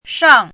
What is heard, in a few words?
"shang4", pinyin